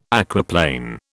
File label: "aquaplane", British